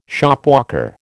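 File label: "Shopwalker", American English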